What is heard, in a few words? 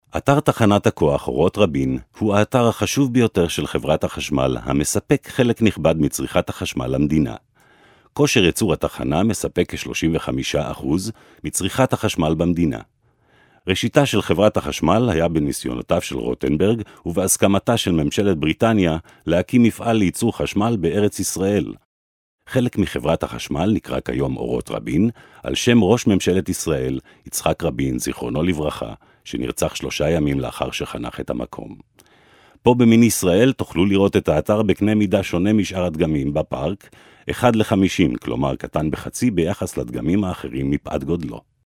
hebrew male